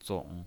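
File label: "zong" Mandarin Chinese pinyin voice, third tone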